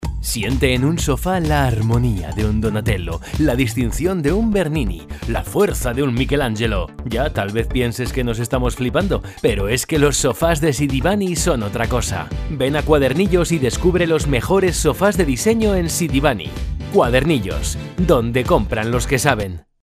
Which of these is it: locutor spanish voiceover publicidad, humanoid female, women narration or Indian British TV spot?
locutor spanish voiceover publicidad